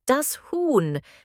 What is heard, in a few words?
chicken in german